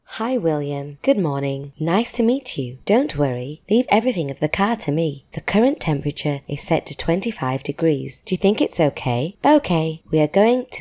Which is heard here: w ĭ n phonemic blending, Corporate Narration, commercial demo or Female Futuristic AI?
Female Futuristic AI